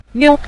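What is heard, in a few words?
"niu", Mandarin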